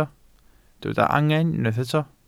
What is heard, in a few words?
"angen", Welsh